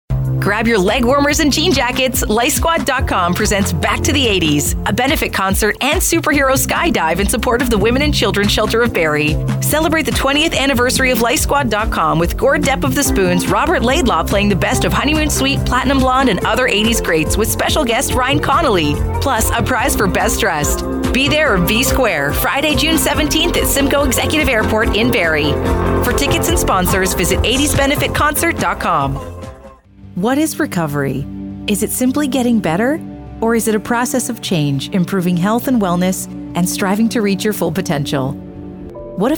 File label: USA